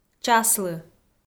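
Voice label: "часлы", Komi, female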